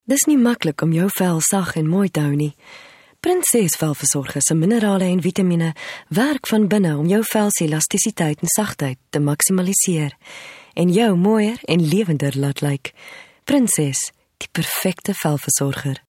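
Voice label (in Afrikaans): Afrikaans hard sell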